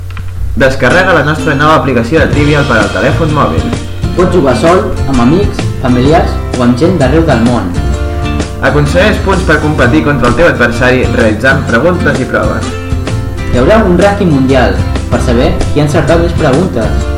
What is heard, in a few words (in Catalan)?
Falca Publicitària